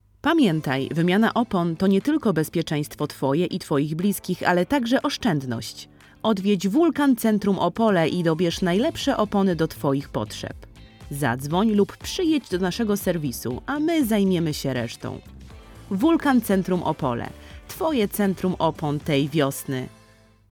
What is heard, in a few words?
Reklama, lektorka